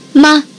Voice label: "ma" neutral, tones of chinese